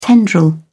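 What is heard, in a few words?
"tendril", British English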